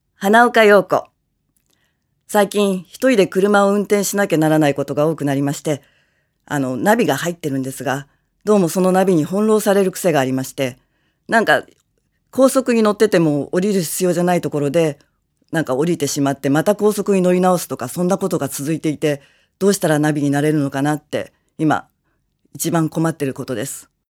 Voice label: freetalk, female voice